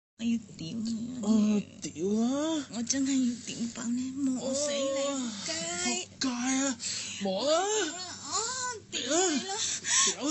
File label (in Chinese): 男主角演繹得唔錯，佢嘅聲音溫柔得嚟又野性